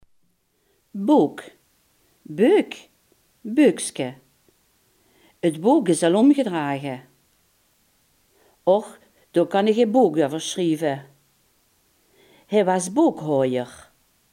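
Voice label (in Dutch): "boek" Limburgish dialect word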